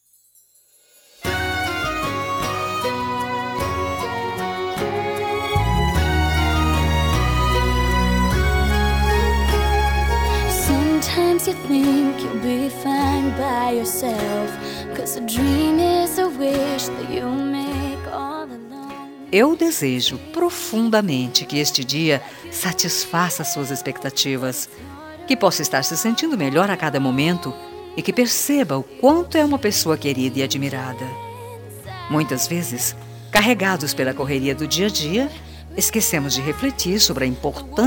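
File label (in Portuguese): aniversario neutro feminino, telemensagem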